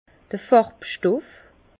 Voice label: "farbstoff", Bas Rhin; vin; pigments colorants

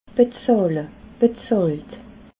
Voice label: "bezahle", alsace

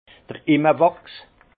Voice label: "imme wachs", alsace; vin; cire d’abeille

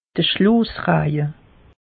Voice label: "schloose raje", alsace; meteo

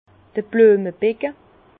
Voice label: "bluemebecke", Bas Rhin; vin; bac à fleurs

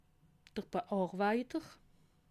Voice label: "bearweiter", alsace

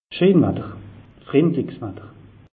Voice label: "scheen watter", alsace; meteo

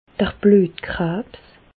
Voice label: "bluetkrabs", Bas Rhin; leucémie; medecine

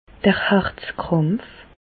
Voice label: "harzkrampf", Bas Rhin; medecine; angor

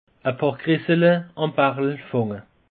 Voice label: "gressele", alsace; peche

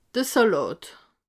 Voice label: "salat", alsace

